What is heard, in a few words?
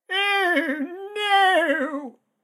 battle chatter